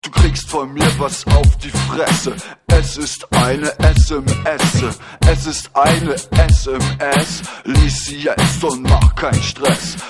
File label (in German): rap auf die fresse sms ton